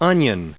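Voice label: "onion", US English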